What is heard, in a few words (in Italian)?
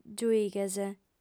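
"giuighes", lingua sarda, female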